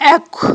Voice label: "Iэкв", aghul

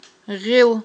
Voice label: "гъил", Aghul

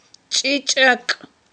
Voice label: "чIичIакI", aghul

